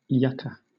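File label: "yucca", British English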